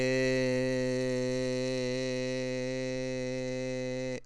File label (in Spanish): e real, LPC